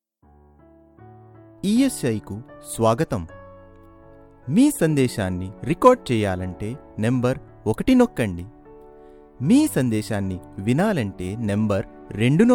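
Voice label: IVR telugu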